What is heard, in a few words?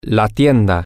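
male, spanish; the Store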